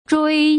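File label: "zhui", Putonghua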